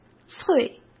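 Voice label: "脆", Mandarin Chinese; hsk; chinese flashcards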